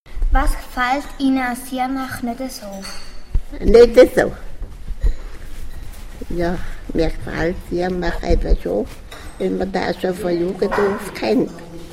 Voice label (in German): Interview alte Frau; Mit einer 98jährigen Altersheim-Bewohnerin; Sirnach